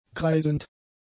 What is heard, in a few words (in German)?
"kreisend", German; adjectives